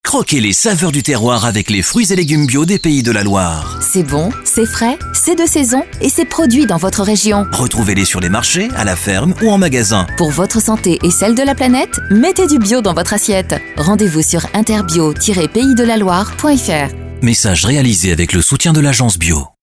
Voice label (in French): info trafic spot; BIO LOIRE OCEAN ANGERS OCT 2025; les fruits et legumes bio en pays de la loire